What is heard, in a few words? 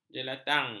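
"jelatang", Malay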